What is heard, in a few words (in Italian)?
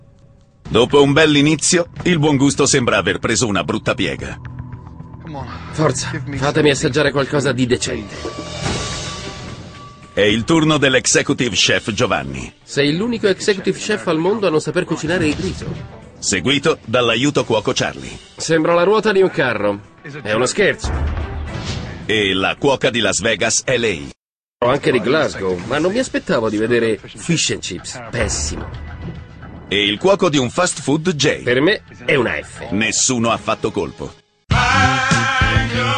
spot; quarticciolo